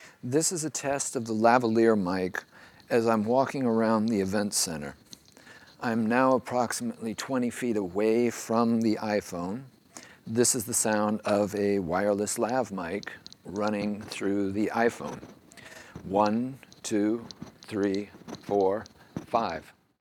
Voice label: lav mic line in iphone